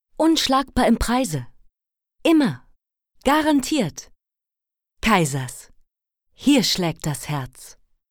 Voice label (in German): jingle; kaiser